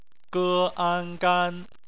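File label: "gan" bopomofo, Chinese; phonetics; bpmf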